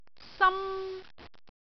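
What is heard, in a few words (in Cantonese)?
"sam1", Cantonese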